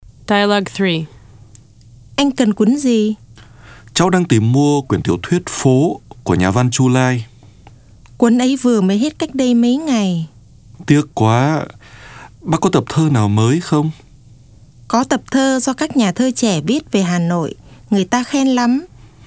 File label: Dialog